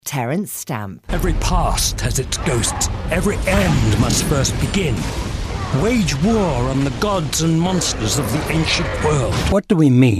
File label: commdemo